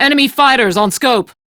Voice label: Crew Gun Spot Fighter, Steel Meridian Female; Dialog; en; Warframe; RailjackCrew